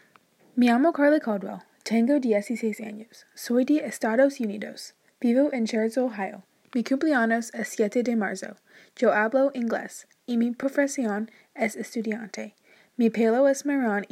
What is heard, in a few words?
spanish